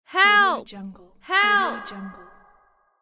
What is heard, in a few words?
"help", female voice